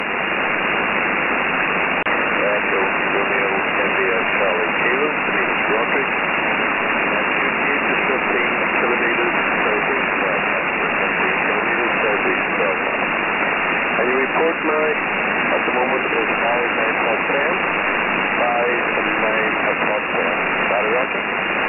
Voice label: 20m SSB weak Bypass; A weak 20m band SSB signal using mono and stereo diversity